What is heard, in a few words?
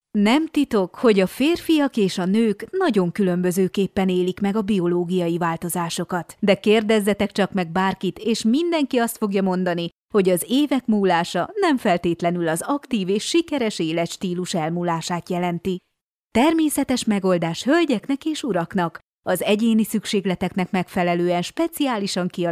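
Hungarian, female, e-learning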